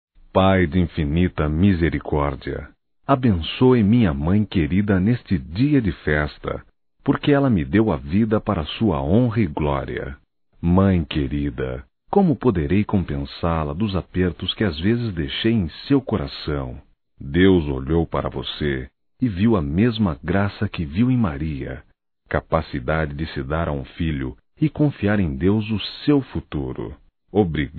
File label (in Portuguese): Aniversário Mãe Masculino; Aniversarios; Telemensagens 2017